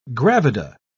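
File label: "gravida", British English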